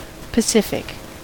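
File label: "pacific", American English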